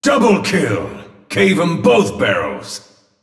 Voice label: Announcer kill Double; leagueoflegends